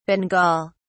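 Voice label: "bengal", English, British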